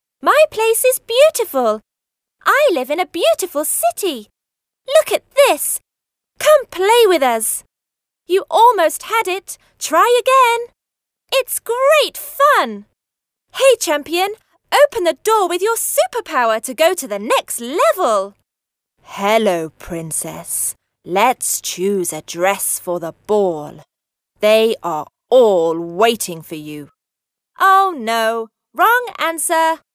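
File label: British English female children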